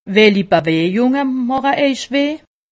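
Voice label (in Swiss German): "bewegung", Alsatian; medecine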